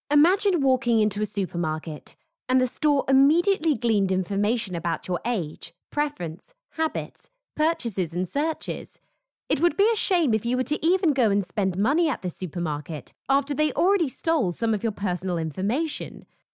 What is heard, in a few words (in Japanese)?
イギリス人女性